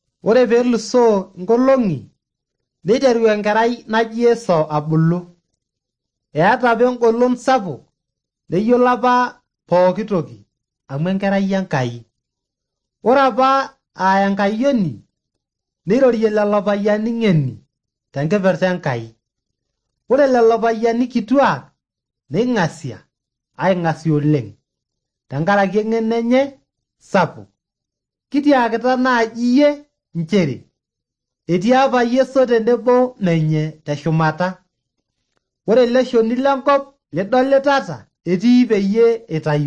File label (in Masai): maasai bsv nt drama; good news; bible